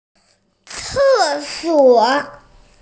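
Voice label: "toilet", hearing impaired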